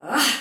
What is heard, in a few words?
Attack Soldier Female, Battle Barks; Dialog; Sinecure